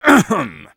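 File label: battlecry, French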